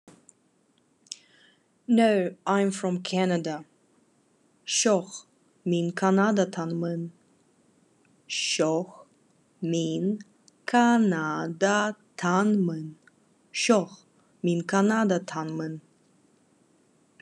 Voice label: Khakas No I am from Canada